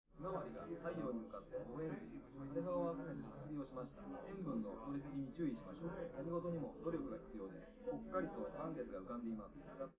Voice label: male, live rec; SiSEC11; underdetermined